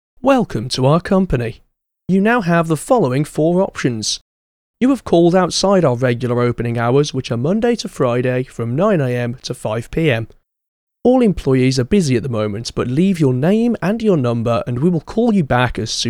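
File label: Informal male English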